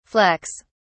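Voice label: "flecks", British English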